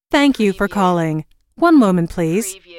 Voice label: US female phone prompt Thank you for calling One moment please; lynne publishing